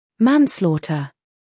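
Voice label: "humor", British; manslaughter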